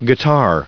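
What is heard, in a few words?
"guitar", US English, recite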